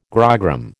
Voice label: "grogram", American